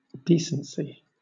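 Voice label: "decency", British English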